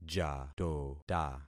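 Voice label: "jatota", concatenated syllables; Affixing experiment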